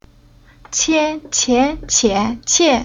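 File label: "Qie", Chinese syllable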